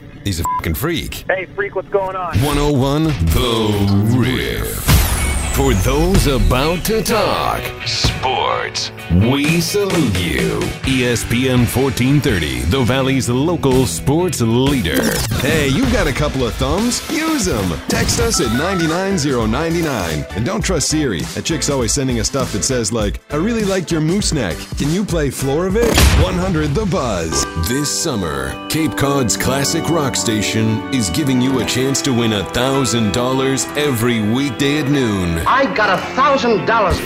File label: radio men multi format; radio imaging